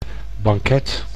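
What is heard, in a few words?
"banket", Dutch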